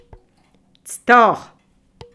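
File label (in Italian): "tetto", Italian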